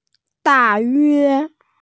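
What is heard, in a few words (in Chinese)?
"da4yue1", Mandarin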